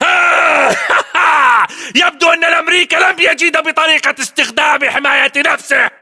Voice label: inform kill firm infantry, battle chatter, english